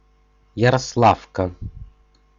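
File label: "ярославка", Russian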